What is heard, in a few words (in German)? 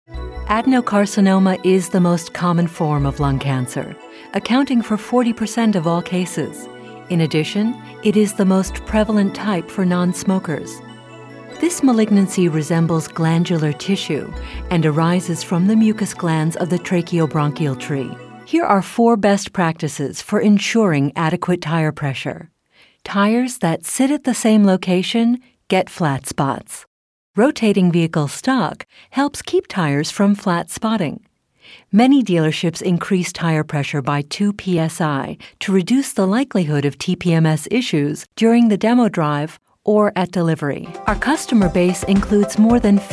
Eng US Kommentar Freundlich